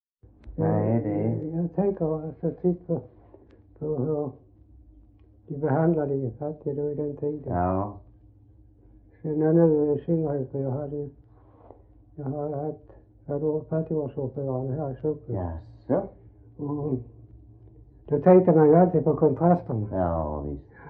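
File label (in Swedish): Röstånga Skåne, Svenska sagor dialekter; Folkminnen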